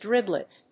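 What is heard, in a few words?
"driblet", English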